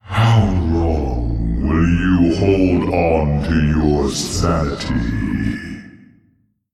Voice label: Male Old God Idle; Yogg Saron

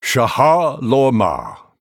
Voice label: Male Night Elf Thanks

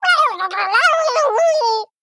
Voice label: Female Murloc Play

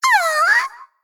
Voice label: Female Faerie Death

